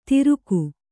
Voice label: "ತಿರುಕು", Kannada

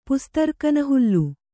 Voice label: "ಪುಸ್ತರ್ಕನಹುಲ್ಲು", kannada

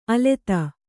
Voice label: "ಅಲತೆ", Kannada

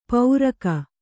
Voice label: "ಪೌರಕ", Kannada

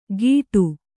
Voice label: "ಗೀಟು", kannada

